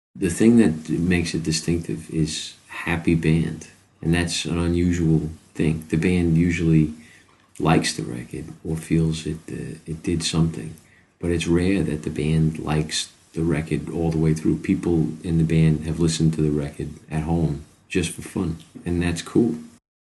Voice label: interview happy band